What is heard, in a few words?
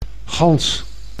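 "gans", Dutch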